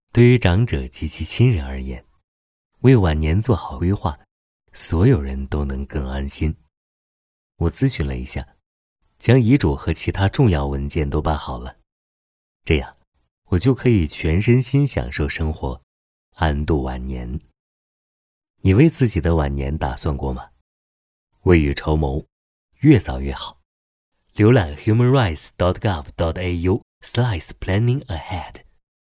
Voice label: mandarin radio; ahrc preparing for later life